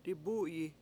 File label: "dibU7yi" I feed someone, Washo